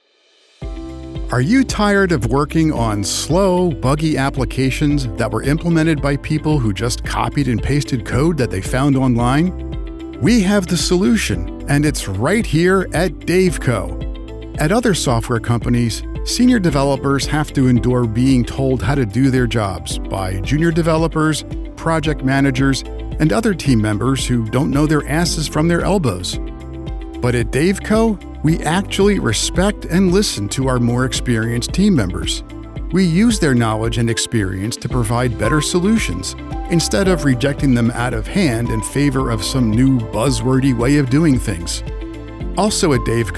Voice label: Recruitment Ad